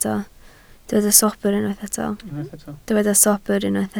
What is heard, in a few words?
"sobr", Welsh